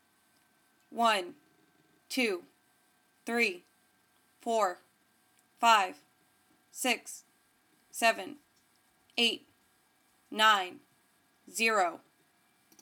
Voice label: count down woman 10; effect